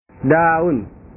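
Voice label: "daun", Indonesian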